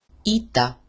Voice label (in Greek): "eta", Greek; alphabet